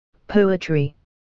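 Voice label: "poetry", English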